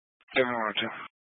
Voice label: Sheriff Dispatch; Mesa County